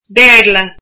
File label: "English", irish